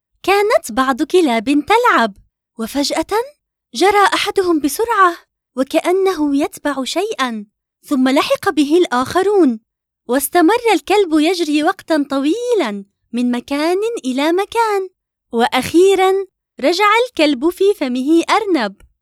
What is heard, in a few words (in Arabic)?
قصة اطفال لغة عربية فصحى